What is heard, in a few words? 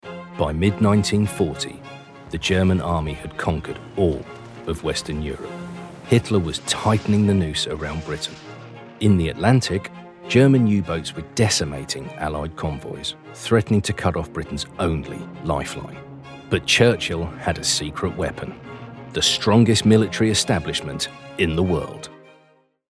Documentary